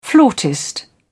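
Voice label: "flautist", British English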